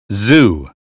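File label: "zoo", American English; 英语音标发音（剑桥版）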